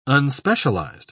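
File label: "unspecialized", British English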